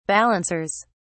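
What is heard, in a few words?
"balancers", British English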